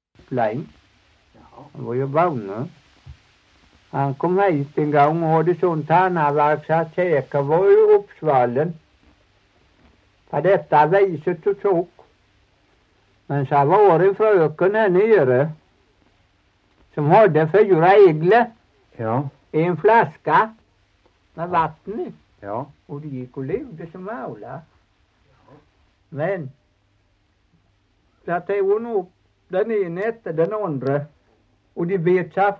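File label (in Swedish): Torhamn Blekinge, dialekter; Folkminnen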